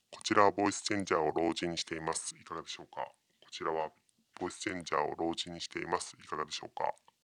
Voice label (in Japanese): ボイスチャンジャー 老人